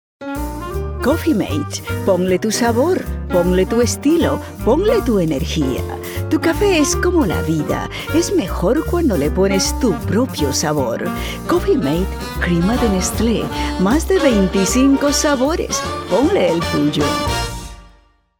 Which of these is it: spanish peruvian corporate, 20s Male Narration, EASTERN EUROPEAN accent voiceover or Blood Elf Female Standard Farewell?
spanish peruvian corporate